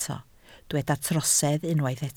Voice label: "trosedd", Welsh

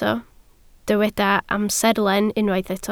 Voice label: "amserlen", Welsh

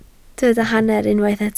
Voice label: "hanner", Welsh

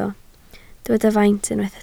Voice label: "faint", Welsh

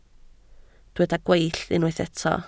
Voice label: "gweill", Welsh

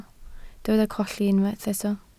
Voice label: "colli", Welsh

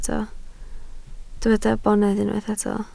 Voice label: "bonedd", Welsh

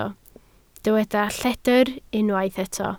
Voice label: "lledr", Welsh

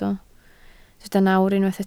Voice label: "nawr", Welsh

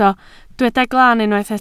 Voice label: "glan", Welsh

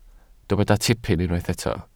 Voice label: "tipyn", Welsh

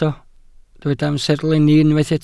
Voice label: "amserlenni", Welsh

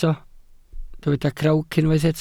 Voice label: "crawc", Welsh

